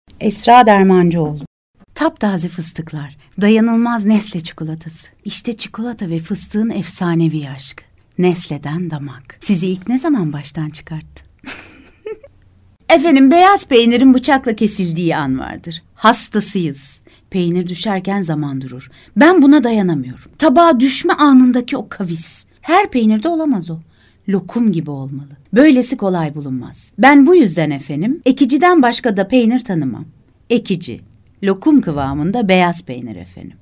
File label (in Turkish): turkce kadin